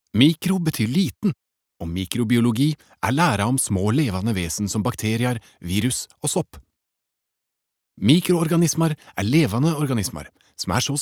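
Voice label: Norwegian nynorsk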